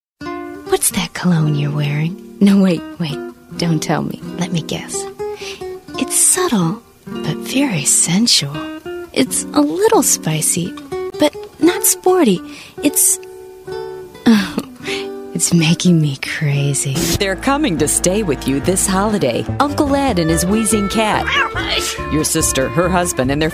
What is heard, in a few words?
Commercial